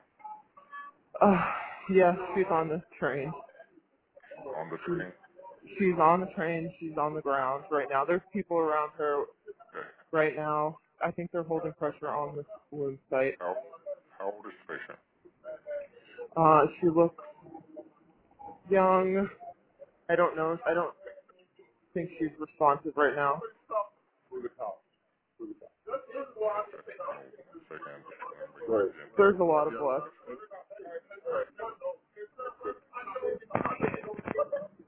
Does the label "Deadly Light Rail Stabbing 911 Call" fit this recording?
Yes